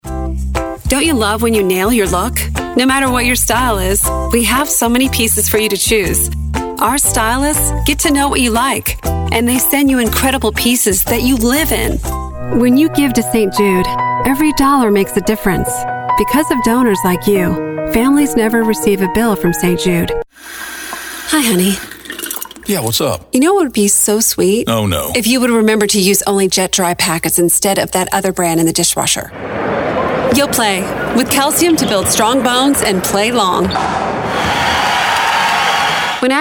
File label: Conversational